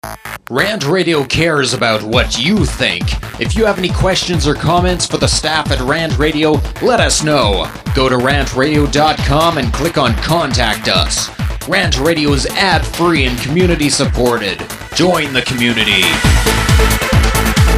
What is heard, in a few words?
Promo industrial psa; RantRadio; Station Promos-In House